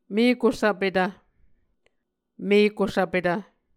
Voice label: "mekusvpetv", Muscogee